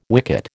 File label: "wicket", American